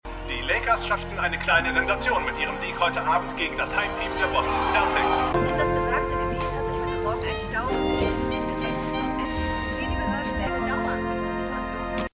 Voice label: Nachrichtensprecher Nachrichtensprecherin; Stimmproben; Winning Time

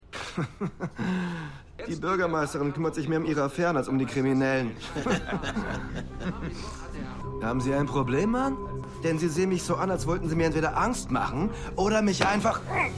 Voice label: Mann In Bar; Falling Water

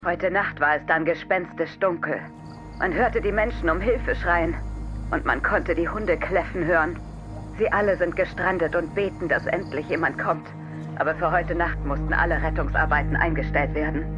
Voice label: Reporterstimme; MEMORIAL HOSPITAL - DIE TAGE NACH HURRIKAN KATRINA (DF 2022); Five Days at Memorial

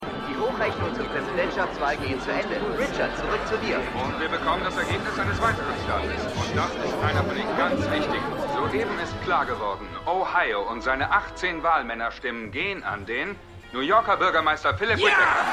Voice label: TV Sprecher Nachrichtensprecher; Stimmproben; Falling Water

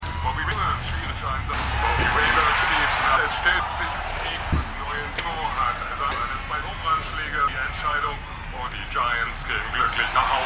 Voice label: TV Sportkommentator; The Wire

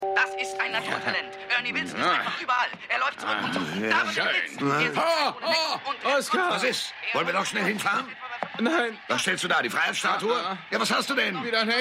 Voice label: Sportkommentator; Maennerwirtschaft

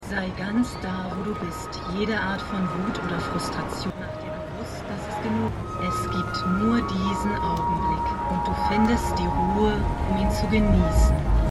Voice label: Sprecherin; The Flight Attendant

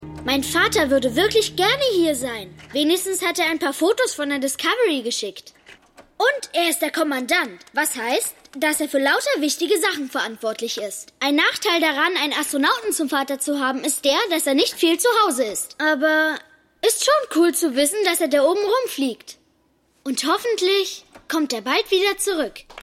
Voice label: Kind; Stimmproben; Zane Donovan; Eureka

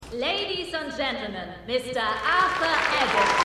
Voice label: Ansagerin; Liebe Braucht Keine Ferien

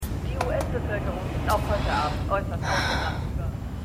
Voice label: TV Nachrichtensprecherin; The Good Fight

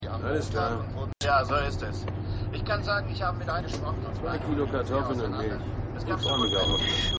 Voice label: Fahrer Reporter; Rescue Me

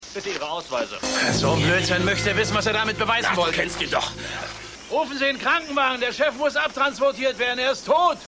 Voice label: Polizist, Stimmproben; Andy

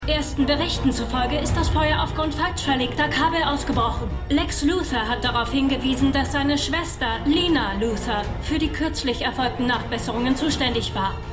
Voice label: Reporterin; Supergirl

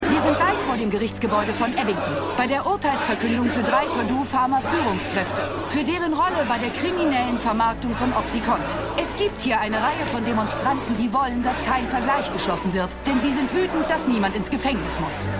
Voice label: Fernsehreporterin; Stimmproben; Dopesick

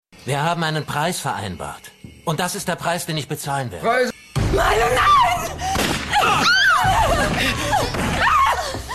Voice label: Frau; Milo

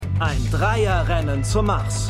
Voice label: Moderator; T3 Rennen; For All Mankind

